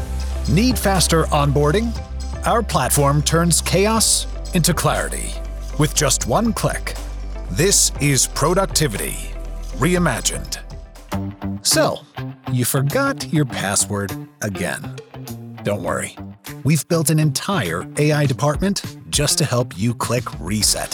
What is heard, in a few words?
Explainer Video; VO Demo